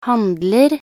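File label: "handler", Norwegian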